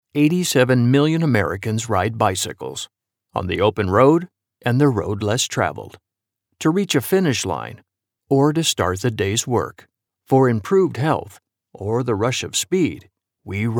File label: Bike Insure TV